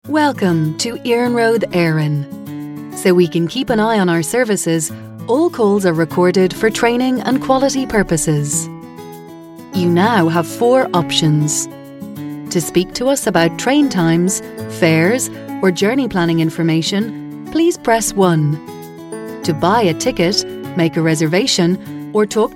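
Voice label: irish voiceover telephone, female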